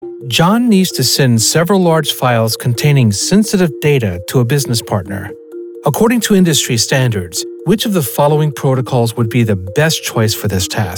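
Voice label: elearning, Male Voice Actor; tech multiple choice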